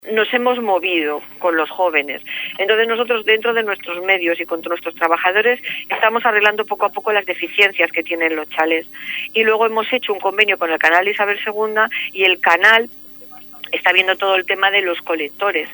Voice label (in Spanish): noticias